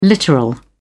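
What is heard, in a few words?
"literal", British English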